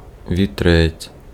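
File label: Ukrainian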